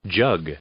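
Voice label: "jug", English; noun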